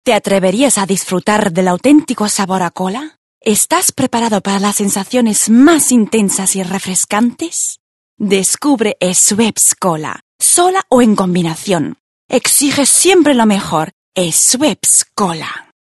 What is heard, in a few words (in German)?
Werbung span